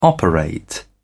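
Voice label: "operate", British English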